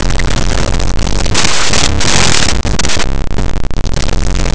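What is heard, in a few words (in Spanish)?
"pasatiempo", Spanish; Laboratori de fonetica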